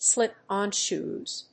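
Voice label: "slip-on shoes", English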